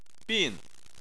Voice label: "bin4", chinese